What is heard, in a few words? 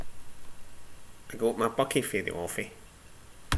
buckie, slang; Ah huvnae seen ye aboot